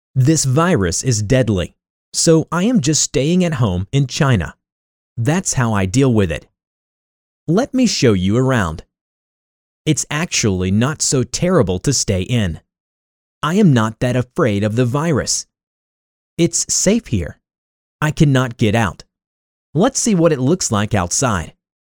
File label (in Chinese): 外籍男英 外语 美式英语 新样 自然